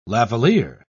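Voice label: "lavalliere", British English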